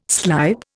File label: "slype", Indian